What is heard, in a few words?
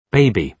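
"baby", British English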